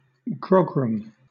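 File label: "grogram", British English; pronunciations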